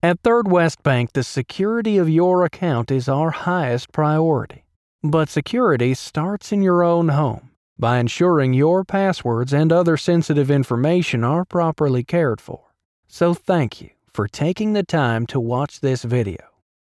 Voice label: Southern Bank Internet video

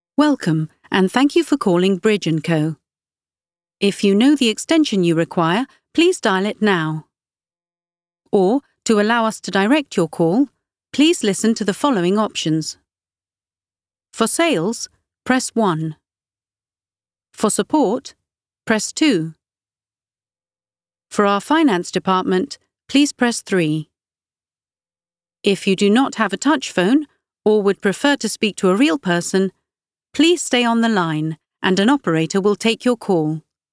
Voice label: IVR

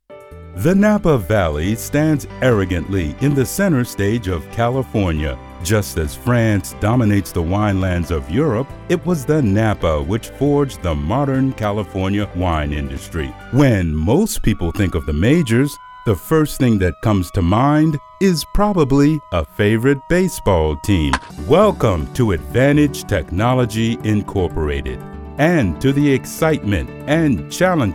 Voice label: Narration, warm friendly baritone; Cbeebies

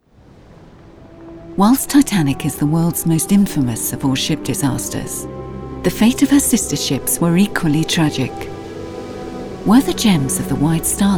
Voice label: TITANIC PROMO

